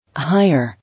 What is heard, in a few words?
"Hire", English